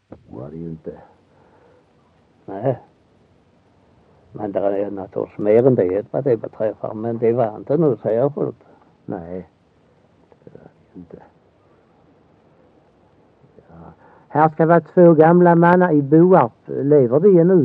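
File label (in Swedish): Össjö Skåne, dialekter; Folkminnen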